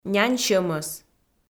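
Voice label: "нянь сьӧмӧс", Komi, female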